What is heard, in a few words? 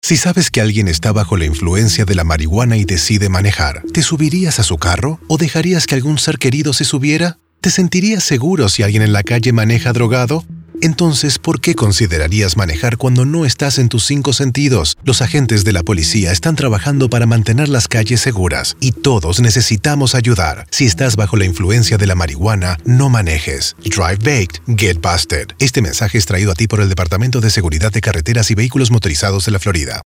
Radio Spanish TRAFFIC